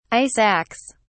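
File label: "ice axe", British English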